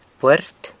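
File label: "pwert", San Lucas Quiaviní Zapotec; door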